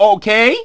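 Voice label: announcer, Japanese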